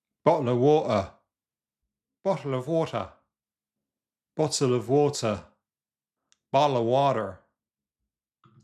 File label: SSBE GA